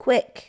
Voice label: "quick", L2 English